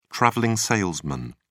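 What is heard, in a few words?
"traveling salesman", British English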